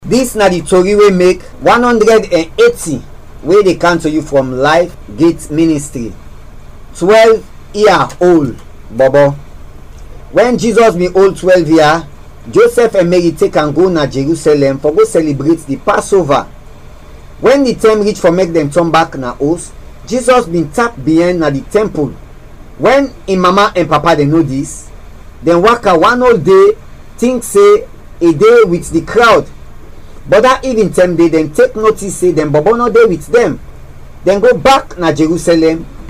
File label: Krio; Africa; Stories; TWELVE YEARS OLD BOY